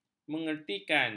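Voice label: "mengertikan", Malay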